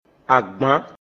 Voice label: "Assiette", Mina; Àgbàn

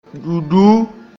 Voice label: "Ɖúɖú", Tournoyer; Mina

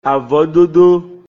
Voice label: "Àvɔ̆dŏdó", Tissage; Mina

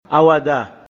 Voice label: "Àwàdà", Aile; Mina

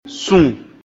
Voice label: "Sùn", Allumer; Mina

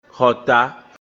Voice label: "Xɔ̀tă", Toit; Mina

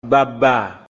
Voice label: "Bàbà", Termite; Mina

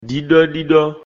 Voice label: "Ɖíɖɔ́ɖíɖɔ́", Action de lécher; Mina

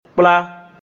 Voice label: "Apprendre", Mina; Kplá

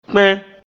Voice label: "Kpɛ̆n", Toux; Mina

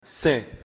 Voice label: "Sɛ̀n", Adorer; Mina

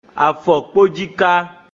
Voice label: "Àfɔ̀kɔ́njíkà", Tendon d'Achille; Mina

